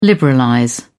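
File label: "liberalize", British English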